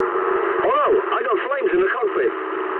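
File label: English on fire mood low; War Thunder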